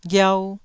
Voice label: "ghiau1", Taiwanese syllable